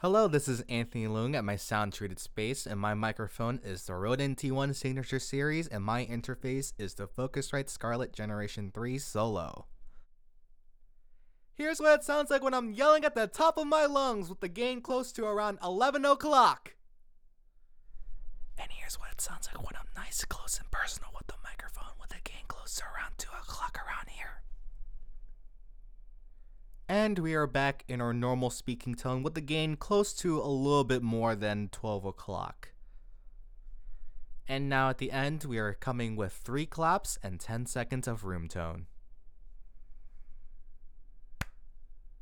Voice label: Raw Studio